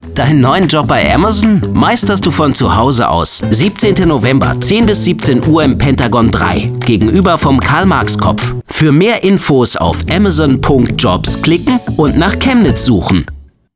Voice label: werbung off frisch

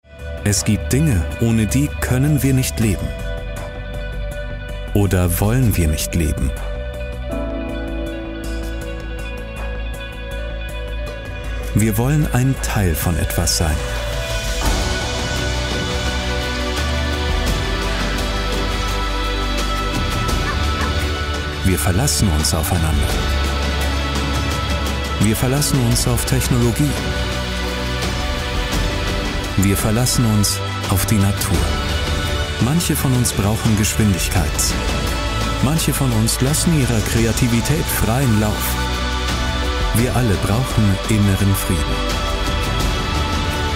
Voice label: image tief dramatisch

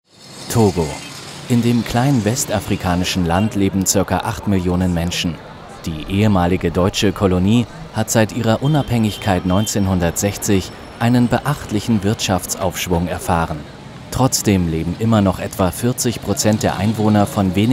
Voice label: reportage doku; togo

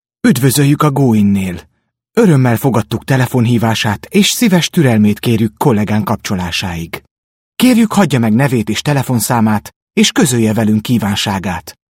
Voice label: telefonansage ungarisch

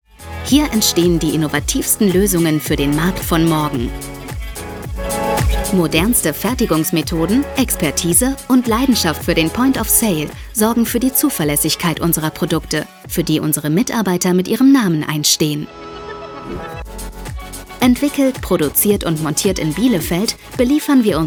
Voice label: Imagefilm; anker

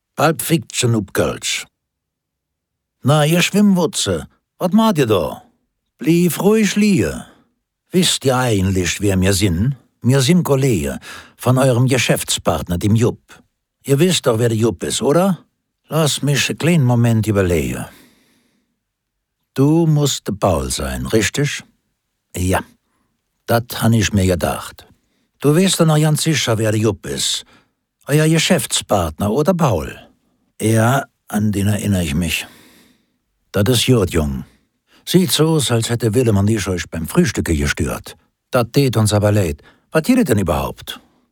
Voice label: kolner dialekt

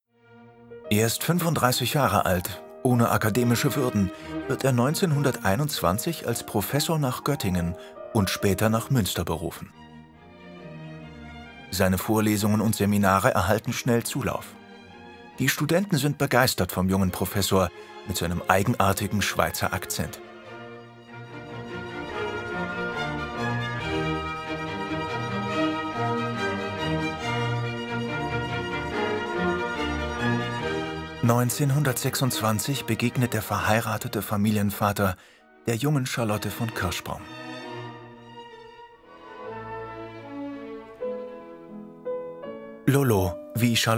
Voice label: doku; srf; mobrents

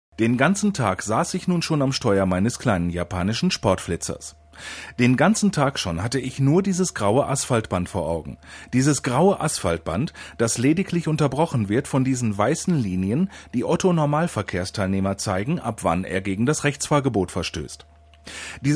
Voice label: hoerbuch; Muttersprache Deutsch

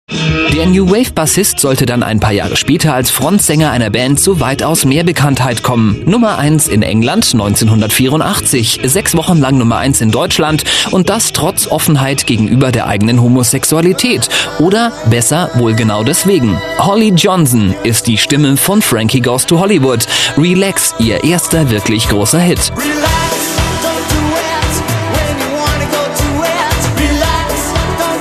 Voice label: redaktionell

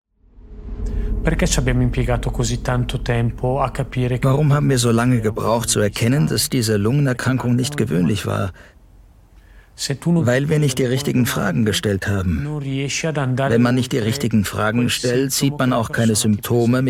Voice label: dokumentation; zdf; der ausbruch

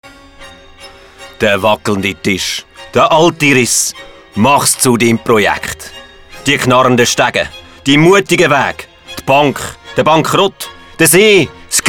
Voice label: werbung ch; Sprecher in Bern; Muttersprache Deutsch/Schweizerdeutsch

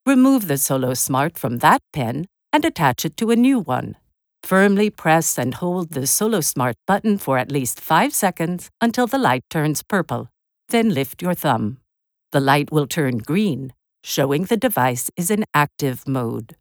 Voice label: erklarvideo; solosmart

